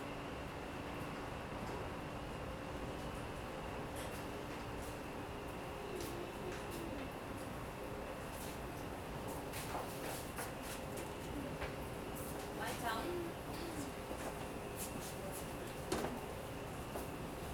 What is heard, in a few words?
Newspaper Spanish